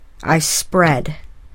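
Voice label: "I spread", English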